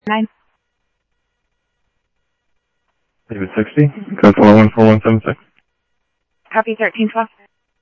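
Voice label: Simulcast police department, scanner audio trunk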